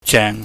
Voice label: "jeng", chinese

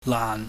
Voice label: "laan", chinese

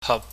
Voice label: "hap", chinese

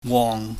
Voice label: "wong", Chinese

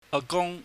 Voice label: "agung", Cantonese

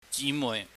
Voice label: "jimuih", Cantonese

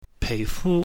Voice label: "peihfu", Cantonese; body; 身體

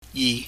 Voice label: "yi", Chinese

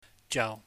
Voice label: "jau", chinese

